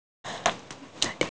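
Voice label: "rang4", Mandarin Chinese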